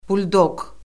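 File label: "buldoq", Azerbaijani; Orfoqrafiya Lüğəti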